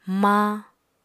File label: "maañ", Apatani